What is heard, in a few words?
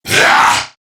Threatened Crewmen, Battle; Dialog; Barks; Warframe; CorpusCrewmen; CrewmanA